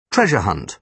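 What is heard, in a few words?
"treasure hunt", British English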